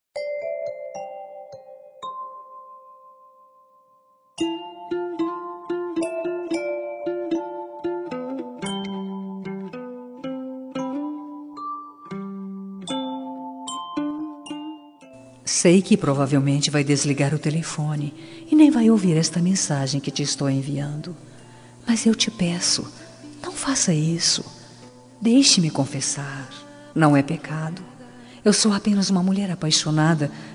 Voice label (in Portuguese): não correspondido feminina, Telemensagem Amor Não Correspondido – Voz Feminina